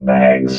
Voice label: "bags", robot vox